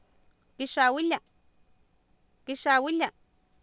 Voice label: kixaawilia, Oapan minimal pairs female; Oapan minimal pairs 2000